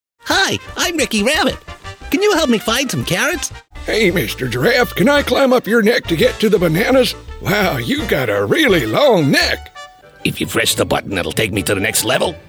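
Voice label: American, toys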